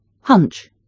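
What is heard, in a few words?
"hunch", English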